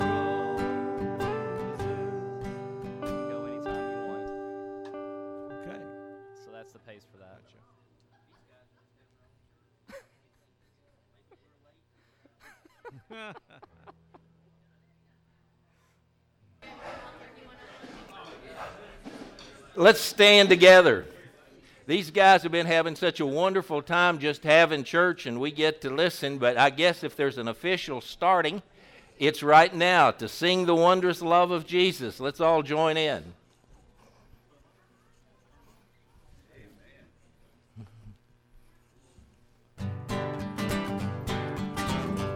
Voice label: announcements